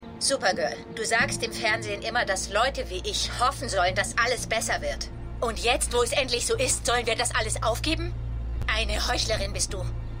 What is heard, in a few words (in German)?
Ungeduldige Frau; Supergirl